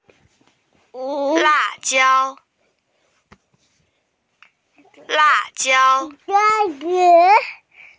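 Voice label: "chili pepper", Mandarin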